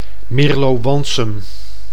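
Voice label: "Meerlo-Wanssum", Dutch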